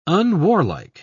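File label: "unwarlike", English, British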